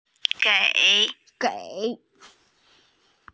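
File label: "gei3", Mandarin Chinese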